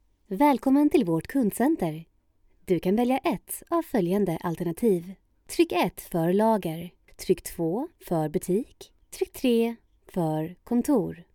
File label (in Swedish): Telefon knappval